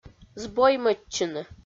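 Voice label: "збоймӧдчыны", Komi, female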